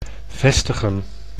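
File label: "vestigen", Dutch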